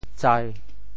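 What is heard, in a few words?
"tsai1", Teochew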